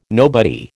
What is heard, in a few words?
"nobody", American